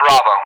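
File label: "Bravo", English; Alphabet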